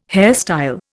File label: "hairstyle", Indian